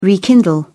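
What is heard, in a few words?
"rekindle", British English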